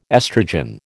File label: "estrogen", American